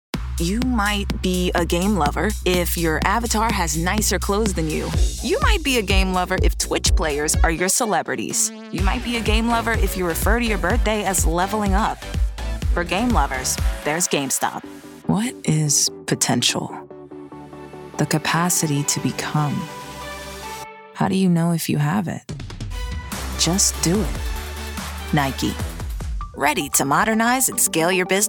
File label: Commercial